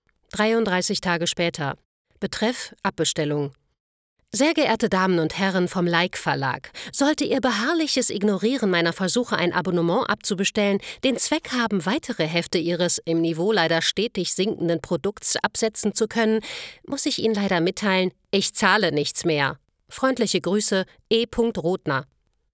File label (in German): Auszug Hoerbuch